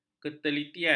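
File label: "ketelitian", Malay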